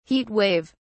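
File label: "heat wave", British English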